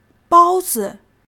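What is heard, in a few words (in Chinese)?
"bāozi", Chinese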